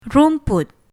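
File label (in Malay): "rumput", Malay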